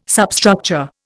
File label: "substructure", Indian